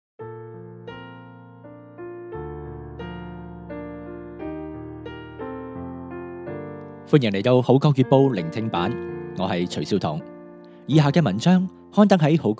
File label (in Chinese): Cantonese